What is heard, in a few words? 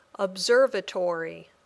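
"observatory", English word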